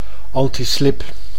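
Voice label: "antislip", Dutch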